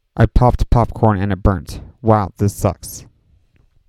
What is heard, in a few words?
Bad Audio Plosives